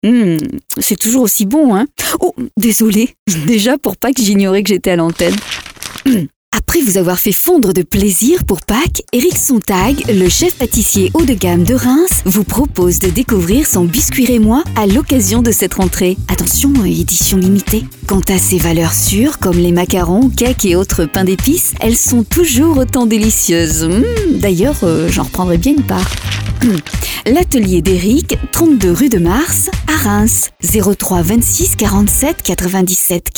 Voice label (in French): radio pub spot